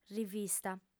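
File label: "rivista", female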